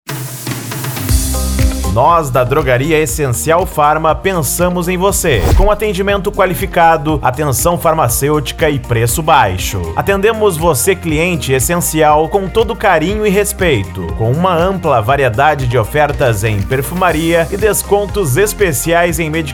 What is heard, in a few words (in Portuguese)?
Locutor; Drogaria Essencyal Farma Viamao RS